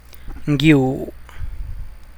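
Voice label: male man, San Lucas Quiaviní Zapotec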